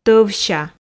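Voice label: "товся", Komi, female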